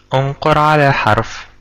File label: click on letter, ar; gcompris